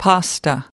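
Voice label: "pasta", US English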